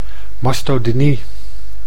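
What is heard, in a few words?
"mastodynie", Dutch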